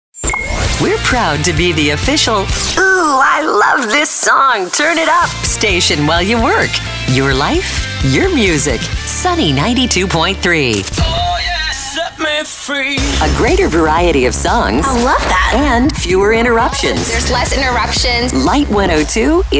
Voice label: Imaging